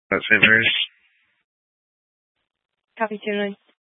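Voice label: Fire Dispatch, Scanner audio recording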